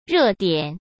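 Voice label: "热点", Mandarin Chinese; chinese-flashcards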